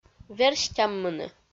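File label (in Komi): "верстяммыны", Komi, female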